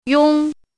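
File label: "yong1", Mandarin pinyin syllable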